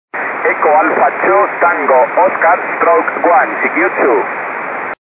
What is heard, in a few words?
2m tropo